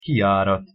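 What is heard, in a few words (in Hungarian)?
"kijarat" Hungarian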